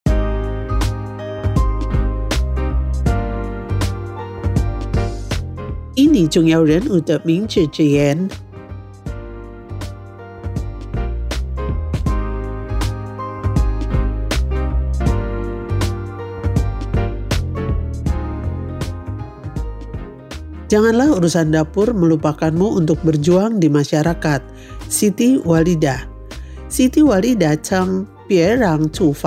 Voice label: Mandarin quote; Kata Bijak; SITI WALIDAH